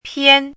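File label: "pian", pinyin, first tone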